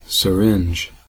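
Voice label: "syringe", US English; pronunciation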